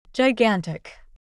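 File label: "gigantic", English pronunciation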